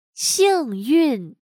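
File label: "幸运", Chinese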